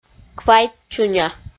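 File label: "квайт чуня", Komi, female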